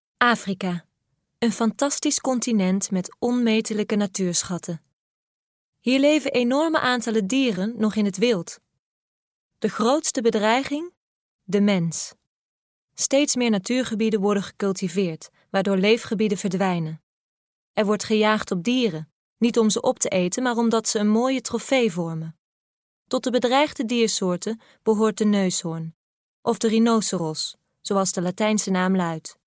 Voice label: Dutch